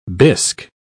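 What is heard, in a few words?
"bisk", British English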